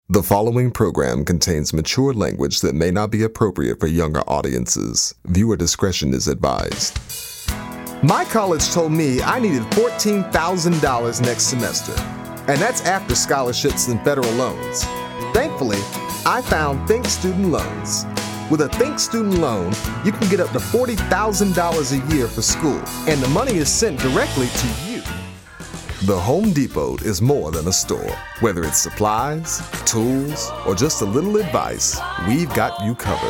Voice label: english american commercial